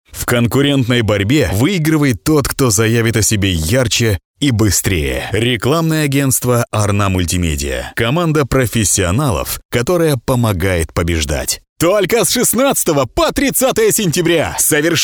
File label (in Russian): russian voiceover locutor ruso